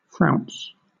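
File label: "frounce", British English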